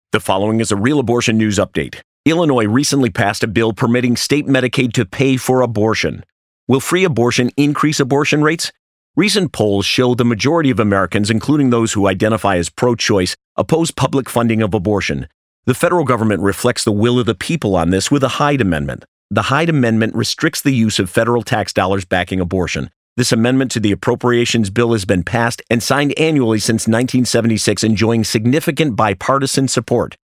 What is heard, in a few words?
Radio; CompassCare; free abortion means more abortion